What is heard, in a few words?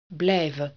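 "blijven", Dutch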